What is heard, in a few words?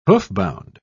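"hoofbound", British English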